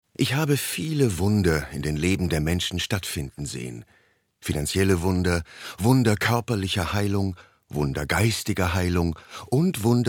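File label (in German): Erzählung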